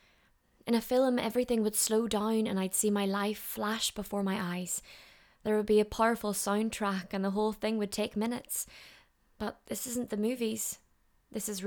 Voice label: Audiobook

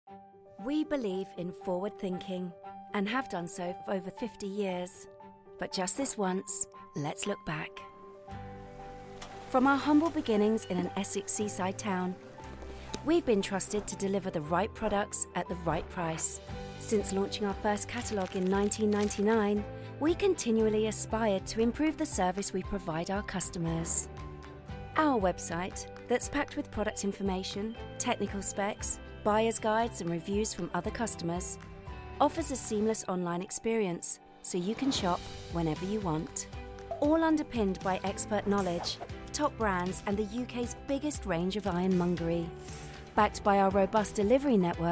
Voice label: Corporate Read

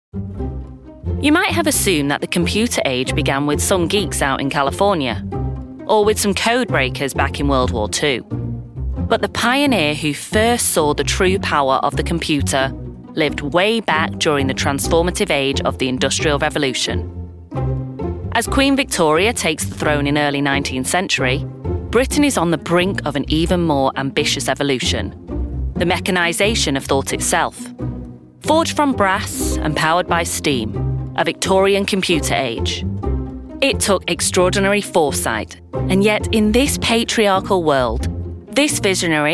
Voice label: Documentary

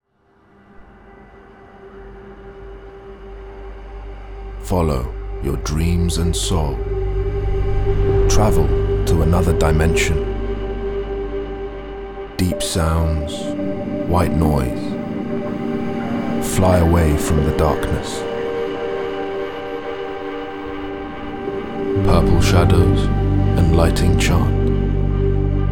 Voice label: Advert

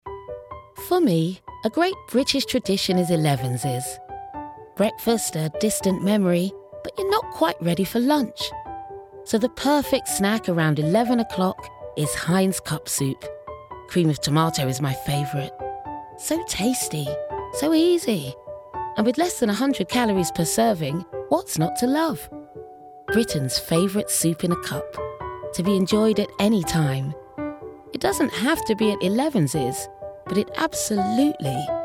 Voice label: Commercial

